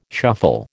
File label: "shuffle", American